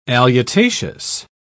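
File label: "alutaceous", British English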